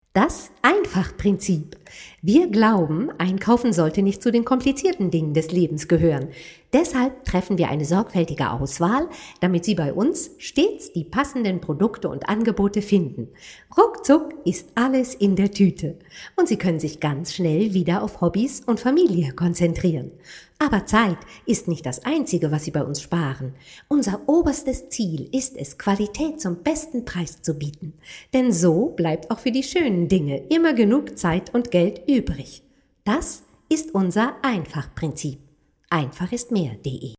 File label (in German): Werbung; Aldi